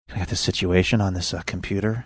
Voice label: quietly saying i got this situation on this computer